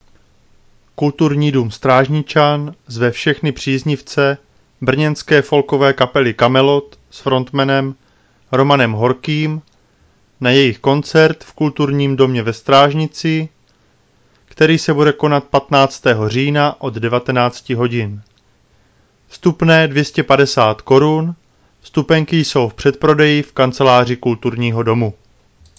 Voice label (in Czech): koncert, rozhlas